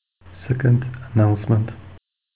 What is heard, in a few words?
announcement; SIPclient